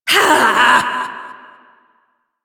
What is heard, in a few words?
Frustration